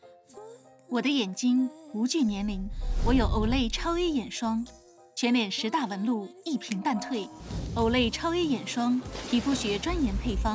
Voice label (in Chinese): 普通話女聲; OLAY eye